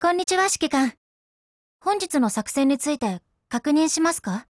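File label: HELLO, Japanese; MPL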